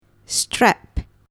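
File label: "strap", English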